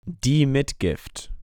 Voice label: "die Mitgift" German

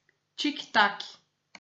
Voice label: "tic-tac", portuguese

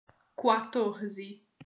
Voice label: "quatorze", portuguese

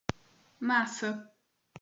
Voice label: "massa", portuguese

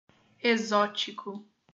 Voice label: "exótico", portuguese

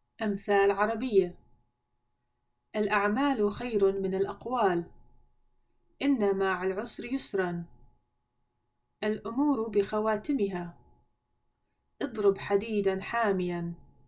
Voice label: Arabic proverbs

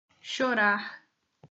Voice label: "chorar", Portuguese

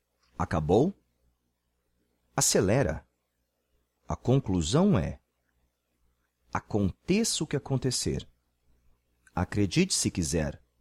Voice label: Colloquial Portuguese

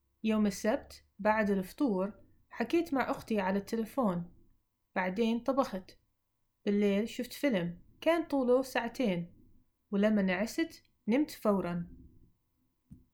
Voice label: Levantine example

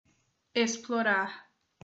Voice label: "explorar", portuguese

